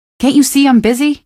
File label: busy, female; npc; Garry's Mod; hl2